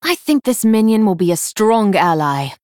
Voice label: Female Night Elf Recruit Medium Minion